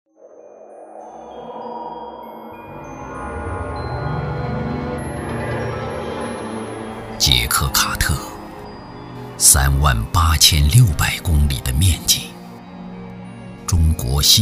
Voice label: 男 纪录片 恐怖 神秘, 国语男声配音; 纪录片

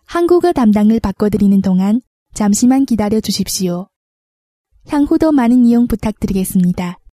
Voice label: 韩语提示音

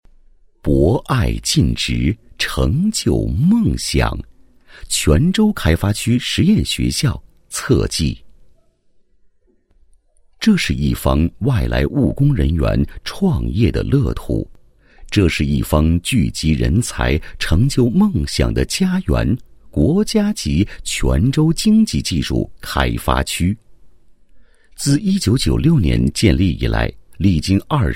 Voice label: 男 学校 实验学校侧记; 泉州开发区实验学校侧记

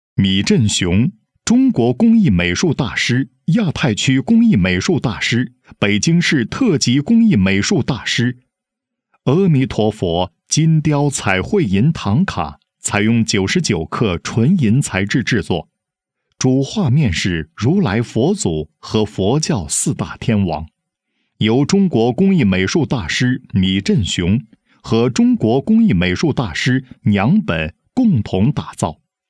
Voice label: 男 文化宣传 视频配音; 唐卡

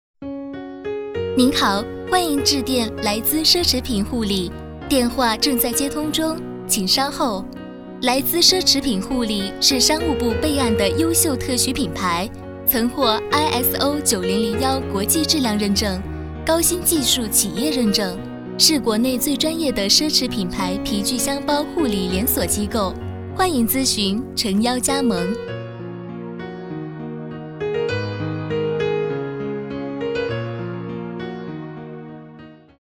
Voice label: 奢侈品高端彩铃, 国语女声配音; 来姿奢侈品高端彩铃

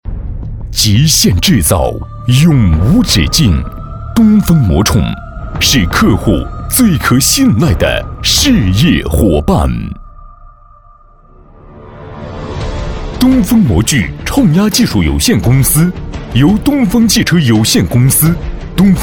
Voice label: 男 专题 磁性气势 模具, 国语男声配音 浑厚; 东风

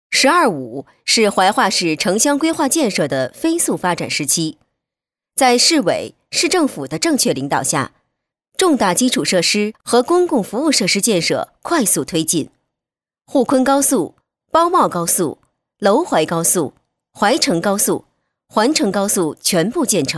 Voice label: 女 专题 政府类文稿, 国语女声配音

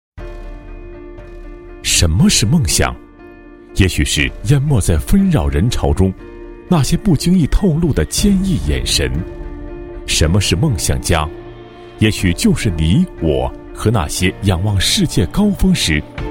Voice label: 男 企业 招聘视频; 通源集团

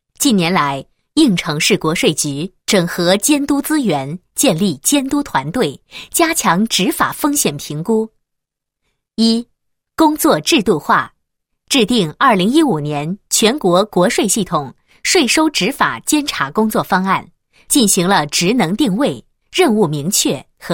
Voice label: 女 工作汇报; 某市国家税务局创新项目工作情况汇报

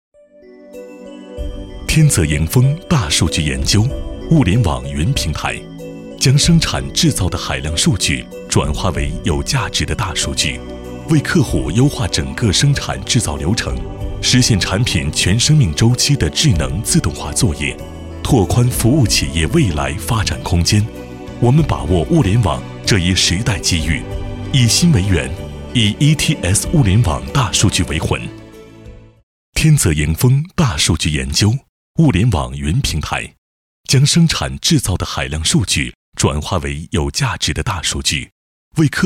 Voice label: 男60号 专题 科技感; 天泽盈丰大数据; 交广主播

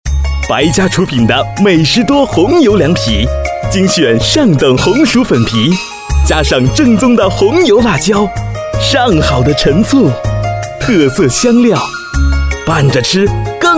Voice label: 男 广告 红油凉皮, 浑厚大气; 国语男声配音; 白家红油凉皮

